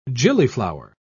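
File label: "gillyflower", British English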